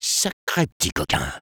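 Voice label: positive vocalization, French; Sniper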